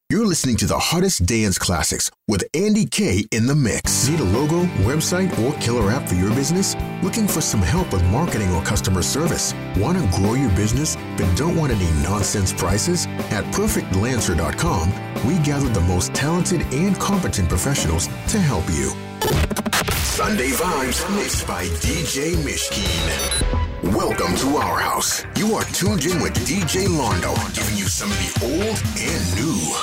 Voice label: Radio